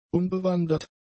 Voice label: "unbewandert", German; adjectives